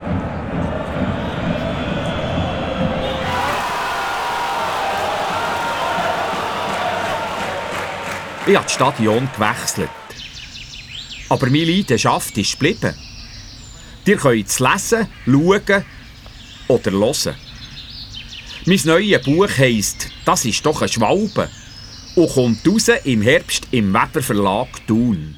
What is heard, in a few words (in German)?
Mix Werbespot